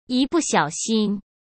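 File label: "一不小心", Mandarin Chinese; chinese-flashcards; hsk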